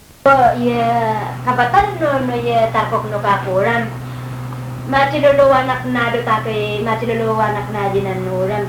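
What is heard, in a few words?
Yami Language, female, 49; kavavatanen no tazokok; tazokok鳥的故事; The story of the tazokok bird; Iranmilek; 東清村; 女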